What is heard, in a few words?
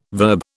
"verb", British